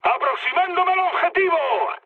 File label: near waypoint, mood high, speech; War Thunder